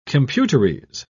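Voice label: "computerese", English, British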